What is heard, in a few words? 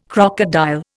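"crocodile", Indian English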